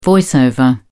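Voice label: "voice over", British English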